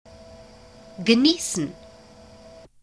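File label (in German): "geniessen", German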